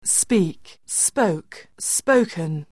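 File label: "speak", English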